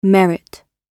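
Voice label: "merit", British English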